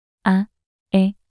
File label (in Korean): "아애" 낱소리 여자